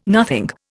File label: "nothing", Indian